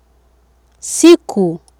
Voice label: "siku", Swahili